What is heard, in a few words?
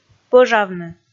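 "пӧжавны", Komi, female; kpv audio dict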